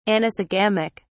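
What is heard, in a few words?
"anisogamic", British English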